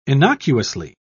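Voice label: "innocuously", British English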